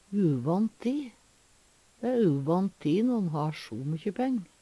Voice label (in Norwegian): "uvann ti", Numedalsmål; utan problem; Dæ æ uvann ti når`n har so mykkje peng.